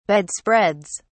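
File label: "bedspreads", English, British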